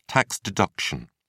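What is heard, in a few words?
"tax deduction", British English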